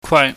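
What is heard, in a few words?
"kwai", Cantonese, mid level tone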